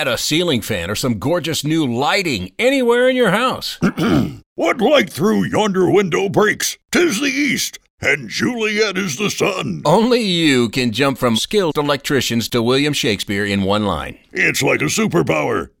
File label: Plumbing HVAC Introducing Electrical, radio ads